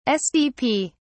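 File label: "sdp", English, British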